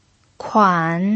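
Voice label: "kuan" third tone, pinyin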